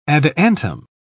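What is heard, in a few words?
"adiantum", British English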